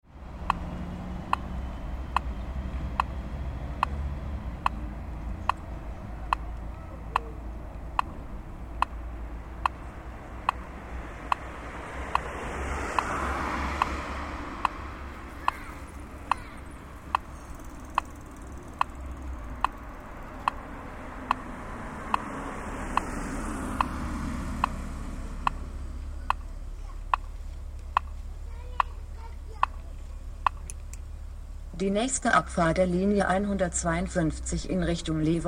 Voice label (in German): sprechende haltestelle; berliner strase; koeln